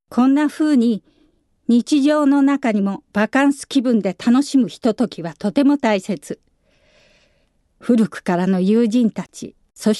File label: female voice